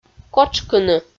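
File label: "котшкыны", Komi, female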